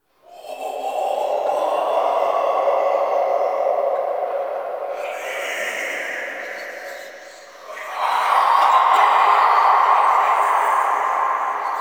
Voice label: Whispers; Ambience; Props; Inf Hub Lab; Behold Us; Warframe; Entrati